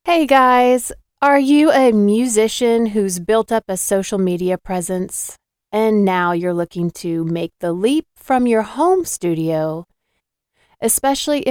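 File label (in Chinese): 外籍女声 主播 美式英语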